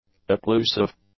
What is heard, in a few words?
"occlusive", english; adjectives